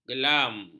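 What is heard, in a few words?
"gelam", Malay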